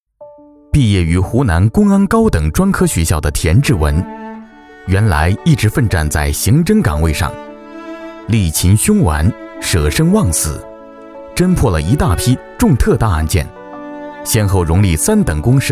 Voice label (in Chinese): 男 专题 人物解说 公安